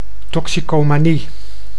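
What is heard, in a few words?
"toxicomanie", Dutch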